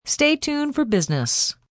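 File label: Tuned for Business, Broadcast, announcer, breakaway, female; Broadcast Sound Effects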